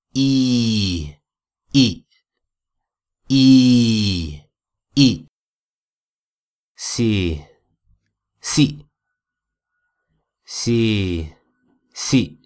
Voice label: i vowel